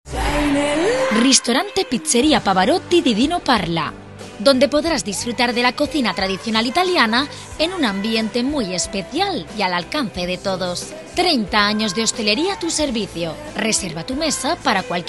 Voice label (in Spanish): anuncio; pavarotti